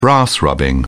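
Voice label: "brass rubbing", British English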